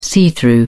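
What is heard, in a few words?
"see-through", British English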